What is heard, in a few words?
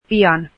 soon, finnish